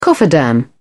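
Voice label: "cofferdam", British English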